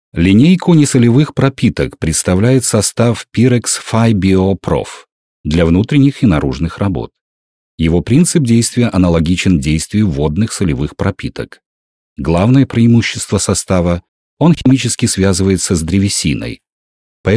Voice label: russian male

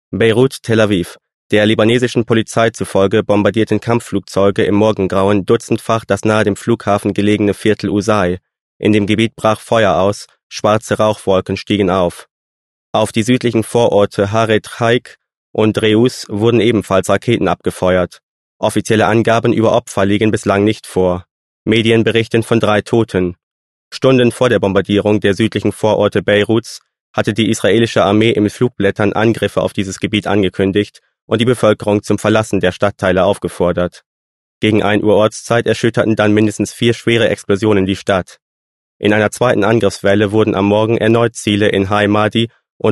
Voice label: german male

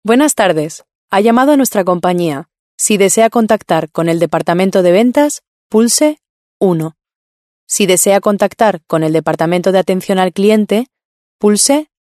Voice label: spanish female

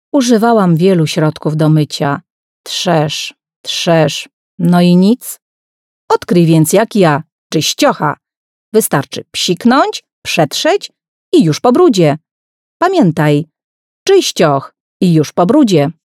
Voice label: polish female

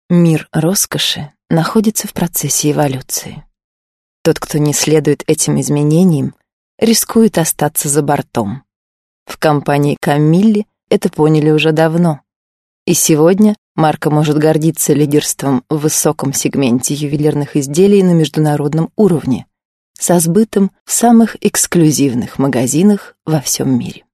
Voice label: russian female

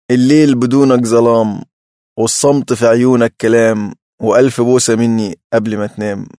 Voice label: arabic male

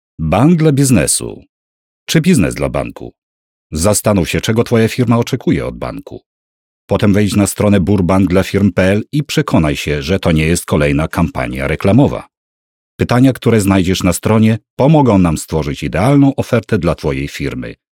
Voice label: polish male

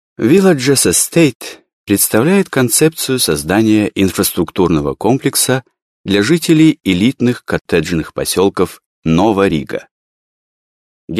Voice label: russian male

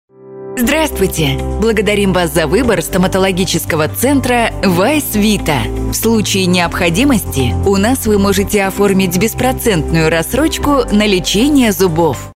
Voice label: RUS

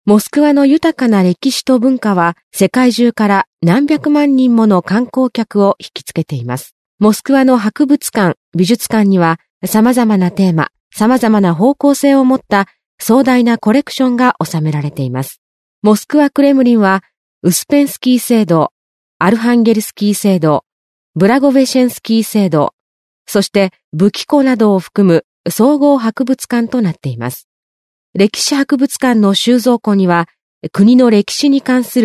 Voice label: japanese female

